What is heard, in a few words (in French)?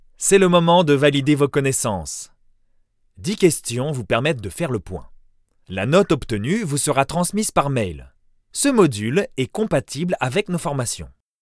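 elearning medium souriant, voix off masculine; voix off masculine naturelle, posée, souriante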